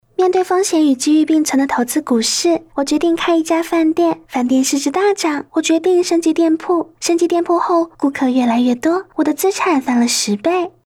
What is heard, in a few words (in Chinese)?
台湾腔游戏解说